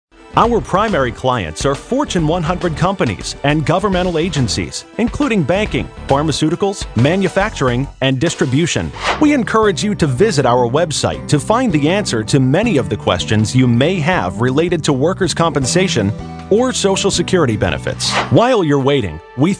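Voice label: male On-Hold Messages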